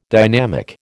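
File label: "dynamic", American English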